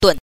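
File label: "dun", Mandarin Chinese, neutral tone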